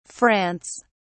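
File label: "france", English, British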